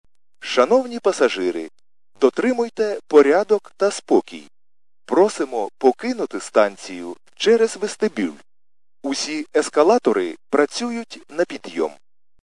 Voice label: khs st male, subway station